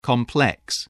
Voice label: "complex", english

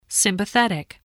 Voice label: "sympathetic", English

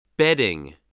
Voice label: "bedding", English

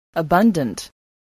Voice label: "abundant", English voice

